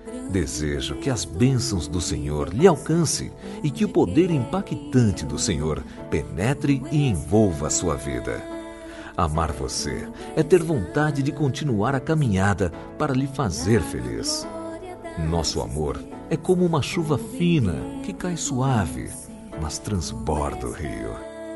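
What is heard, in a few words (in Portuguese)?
Evangélica Aniversário de Namorada, male, telemensagens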